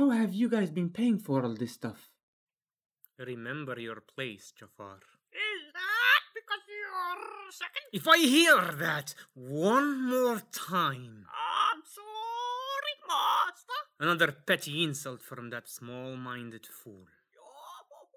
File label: male English with Arabic accent; Devine Voices